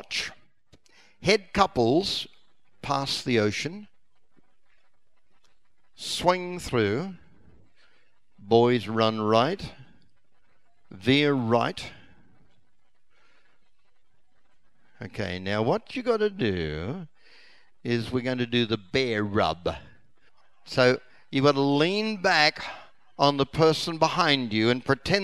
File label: walk thru, square dance